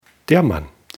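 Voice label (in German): "mann", German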